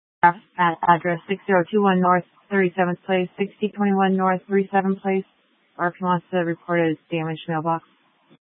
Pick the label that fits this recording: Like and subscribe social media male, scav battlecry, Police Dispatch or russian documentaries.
Police Dispatch